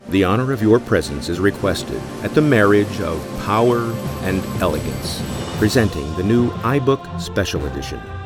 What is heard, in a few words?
Soft Sell